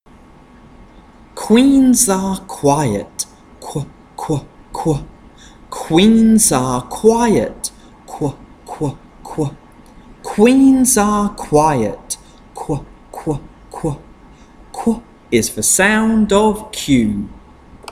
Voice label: Consonant Chant qu